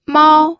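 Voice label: "mao", first tone, pinyin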